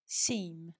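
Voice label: "seme", English; pronunciations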